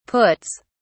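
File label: "puts", British English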